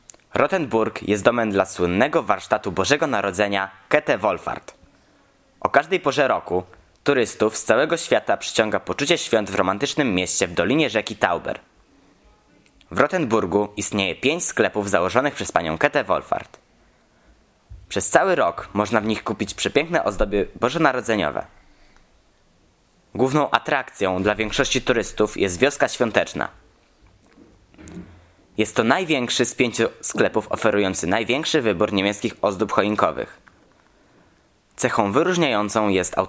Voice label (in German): polnisch; KÄTHE WOHLFAHRT